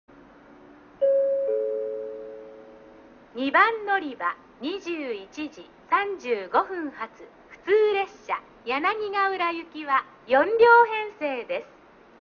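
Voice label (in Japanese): 2番のりば案内放送, 中津駅; 普通 柳ヶ浦行き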